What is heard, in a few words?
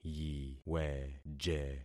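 "yiweje", concatenated syllables; Affixing experiment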